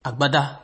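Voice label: "agbada", Nigerian Pidgin, male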